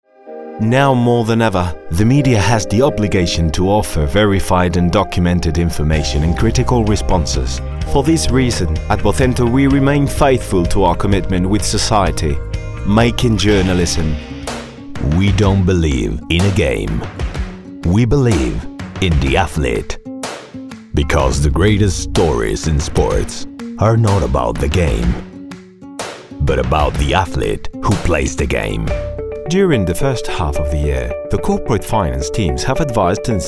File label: english british commercial